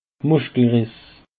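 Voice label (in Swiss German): "muschkelriss", Alsatian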